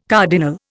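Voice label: "cardinal", Indian English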